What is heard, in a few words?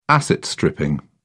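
"asset-stripping", British English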